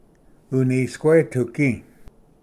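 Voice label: "unisquetugi", Cherokee; ᎤᏂᏍᏇᏚᎩ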